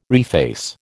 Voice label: "reface", American